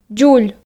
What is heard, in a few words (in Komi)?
"дзуль", Komi